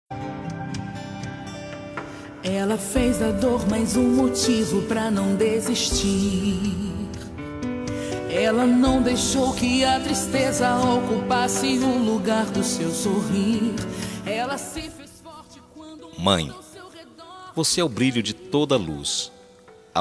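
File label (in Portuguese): DIA DAS MAES EVANGELICO male, telemensagem; DIA DAS MAES EVANGELICO MASC; telemensagem dia das maes evangelica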